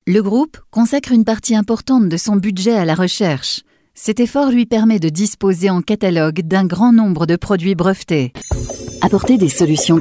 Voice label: female French corporate compilation